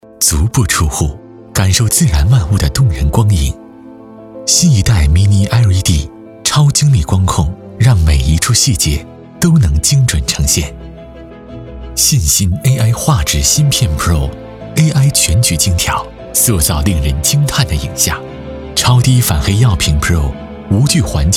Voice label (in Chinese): 男, 广告, 电视, 高端质感; 海信E8N